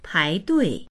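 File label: "pai2dui4", Mandarin Chinese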